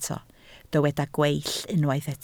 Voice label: "gweill", Welsh